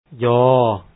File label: y sound, lao